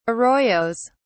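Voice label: "arroyos", British English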